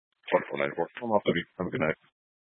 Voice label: Sheriff Dispatch, Scanner audio recording